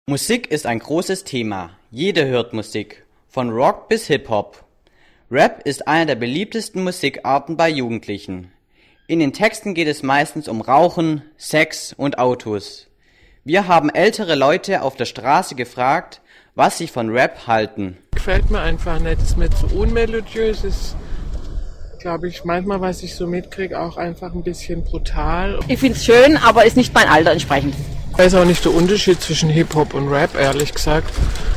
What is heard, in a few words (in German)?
Umfrage Rap